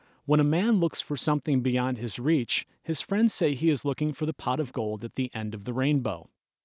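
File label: male speech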